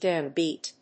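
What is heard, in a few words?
"downbeat", English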